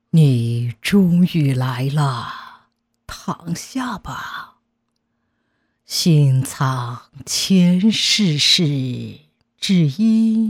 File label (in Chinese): 女 巫婆